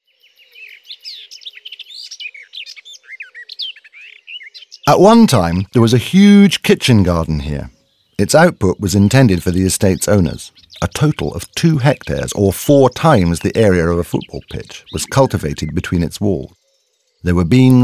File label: audioguide en enfant; jardin; modave